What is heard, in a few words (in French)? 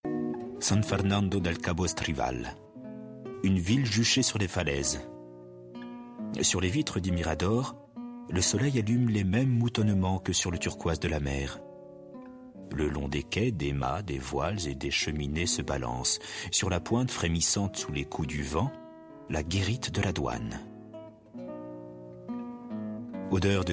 lecture; don faribole